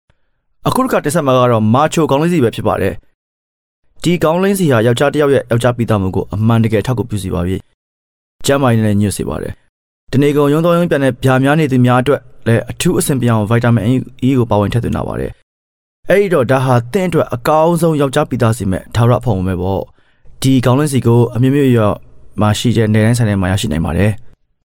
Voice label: Burmese Male